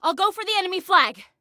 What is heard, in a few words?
Self Attack Flag, Female; Female 02